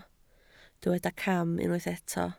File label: "cam", Welsh